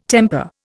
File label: "temper", Indian